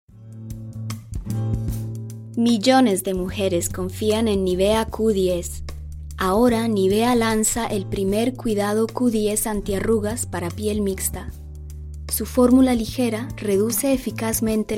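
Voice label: Latin American Spanish General; GoLocalise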